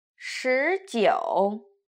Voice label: "shijiu", Chinese

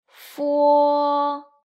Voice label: "f", Chinese

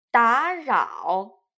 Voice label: "darao", Chinese

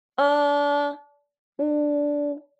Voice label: "eu", Chinese

